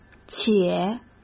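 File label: "且", Mandarin Chinese; hsk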